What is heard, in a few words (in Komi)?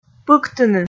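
"пыктыны", Komi, female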